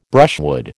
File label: "brushwood", American